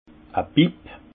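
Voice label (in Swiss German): "bipp", Haut Rhin; vin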